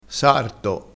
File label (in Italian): "sarto", crespinese